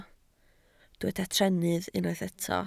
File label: "trennydd", Welsh